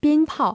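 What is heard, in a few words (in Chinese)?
"鞭炮" Chinese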